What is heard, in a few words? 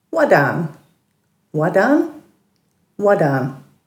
"wadhan", Dhurga